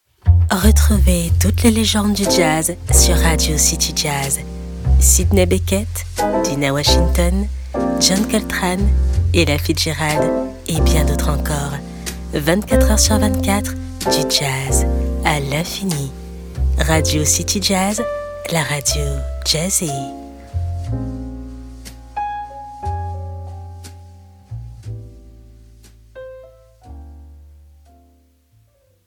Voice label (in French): rassurant doux sensuel séduisant; RADIO CITY JAZZ